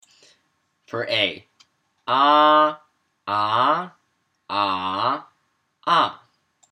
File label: Accents Plain